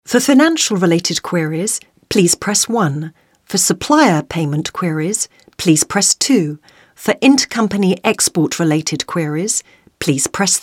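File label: Female English ivr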